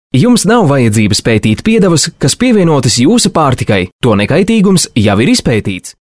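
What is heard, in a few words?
Latvian, male, commercial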